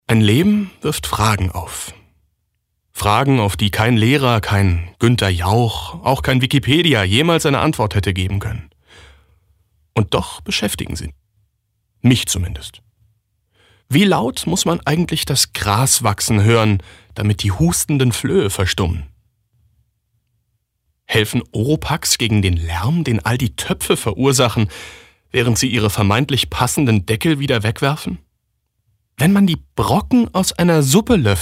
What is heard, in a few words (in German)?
Schauspiel Neutral